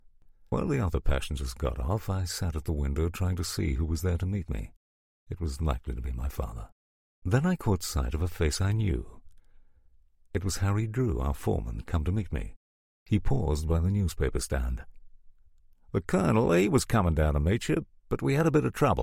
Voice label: Australian Conversational; The Breaking Wave; Nevil Shute

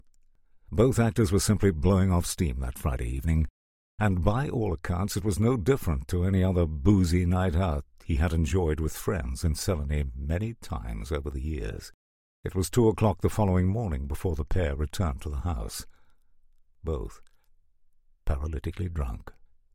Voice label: Biography English RP; Richard Burton

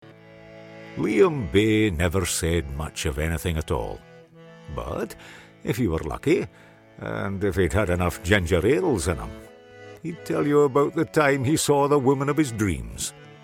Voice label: Highland Scots

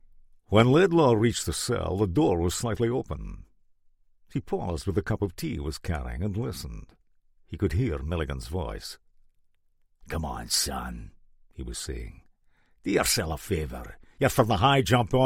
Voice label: Crime Scots Glasgow; Laidlaw

